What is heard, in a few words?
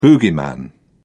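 "boogeyman", British English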